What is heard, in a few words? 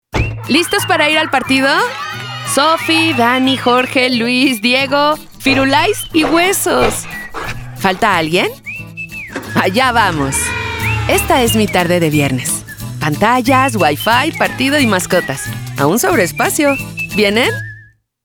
Smiley Optimistic Mom; Mommy Van